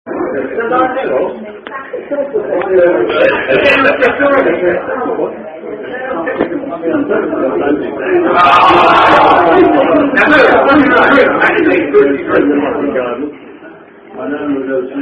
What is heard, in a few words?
Cardinal Puff, Uk Dirty Pint Version Of Are You A Cardinal Drinking Game